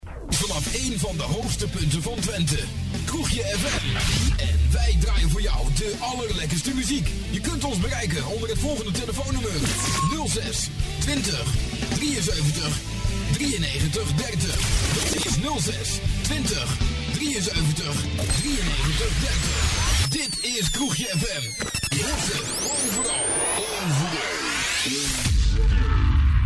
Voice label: Jingle; Kroegje Fm; Audio Fragmenten; Arkan Radio 2